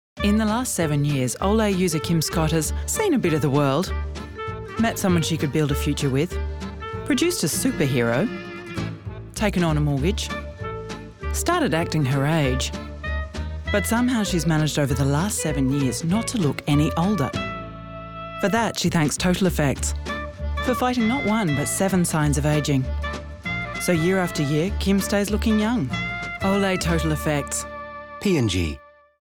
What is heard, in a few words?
Australian TVC; Olay